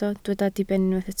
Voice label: "diben", Welsh